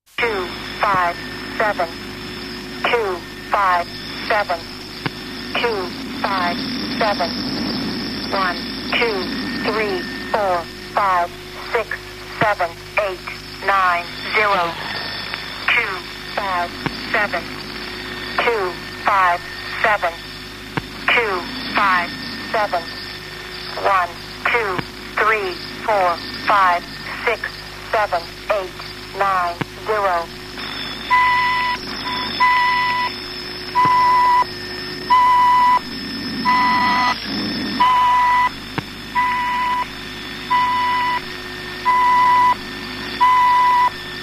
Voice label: english lady

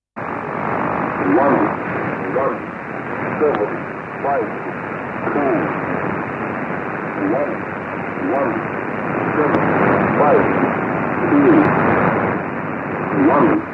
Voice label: english man

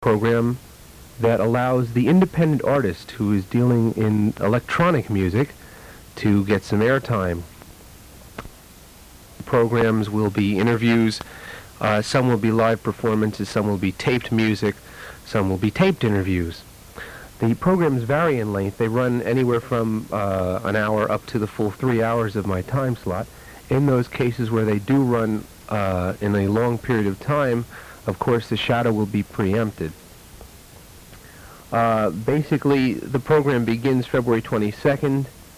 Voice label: Announcement; Live at WFMU on The Open Door

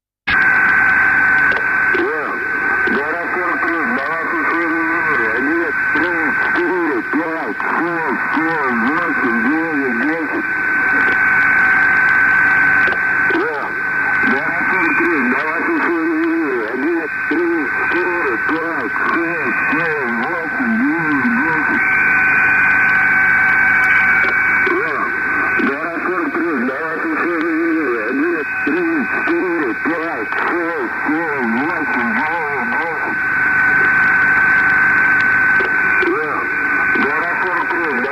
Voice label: russian counting man

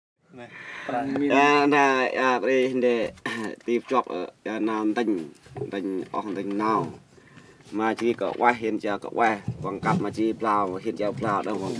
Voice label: Bunong voices, Cambodia; Les Cartes Postales Sonores; NOISE ON EARTH Vol1

